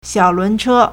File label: "xiao3lun2che1", Chinese